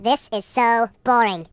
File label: Boring; hedgewars